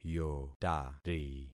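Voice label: "yotari", concatenated syllables; Affixing experiment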